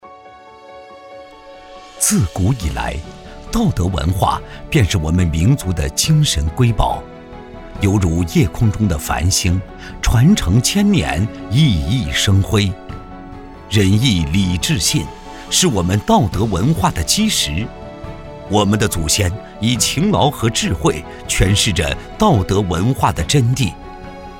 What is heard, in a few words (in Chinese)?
男 宣传片 雄厚; 道德文化